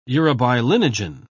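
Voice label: "urobilinogen", British English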